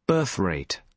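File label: "birthrate", British English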